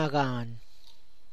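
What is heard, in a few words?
tlacochahuaya, Zapotec; confused dizzy complicated difficult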